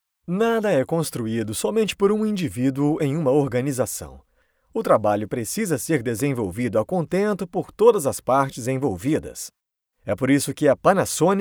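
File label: Brazilian Portuguese, male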